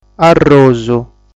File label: "Arrosu", sardu